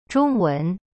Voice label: "中文", Chinese; zhong wen; cyberchinese